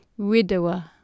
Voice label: "widewe", Anglo Saxon

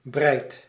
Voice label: "bright", Early Modern English

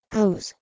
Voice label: "aus" PIE

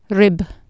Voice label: "ribb", Anglo Saxon

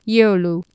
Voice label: "geolu", Anglo Saxon

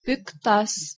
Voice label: "piktas", Lithuanian

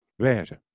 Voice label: "weze", Proto Germanic

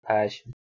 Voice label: "pashm", Persian

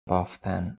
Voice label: "baaftan", Persian

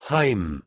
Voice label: "haim", Proto-Germanic

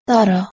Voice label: "tara", Nepali

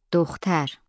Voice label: "dukhter", Persian

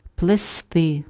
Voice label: "plesti", Ukrainian

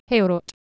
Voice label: "heorot", Anglo Saxon

